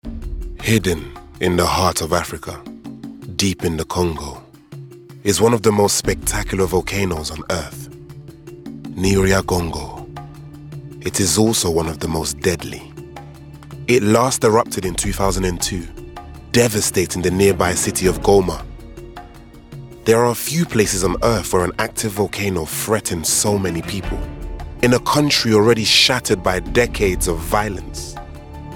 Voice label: Documentary